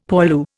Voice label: "poilu", Indian; Dictionary